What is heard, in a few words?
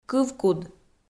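"кывкуд" Komi, female; kpv audio dict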